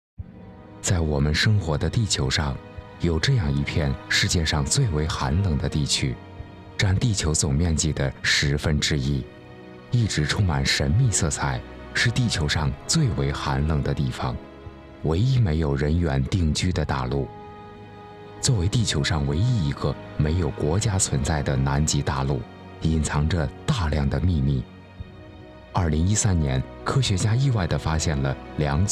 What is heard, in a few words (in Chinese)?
纪录南极自然